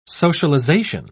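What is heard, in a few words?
"socialisation", British English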